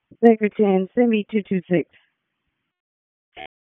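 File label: Police District Dispatch; Raleigh Police Northeast District Disp; wake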